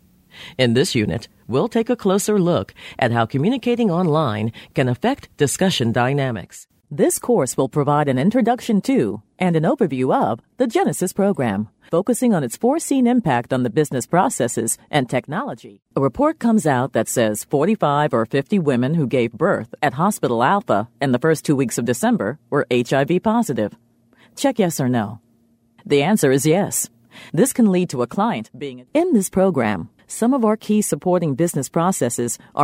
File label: e-learning